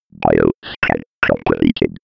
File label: computer voice authorisation